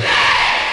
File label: "yeah", group of women